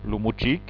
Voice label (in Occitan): "mochic", gasconha